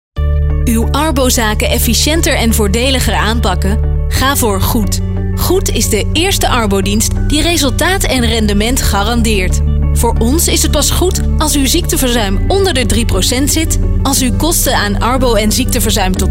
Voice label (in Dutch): Corporate Dutch